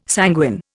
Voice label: "sanguine", Indian